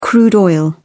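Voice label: "crude oil", British English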